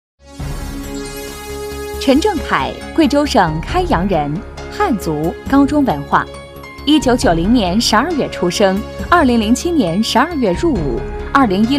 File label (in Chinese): 女 人物介绍